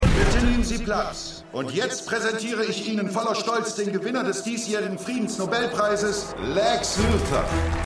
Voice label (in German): Moderator, Stimmproben